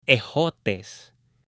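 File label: "ejotes", Spanish, male; AppLenguaje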